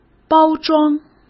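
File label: "包装", Mandarin Chinese; hsk; chinese-flashcards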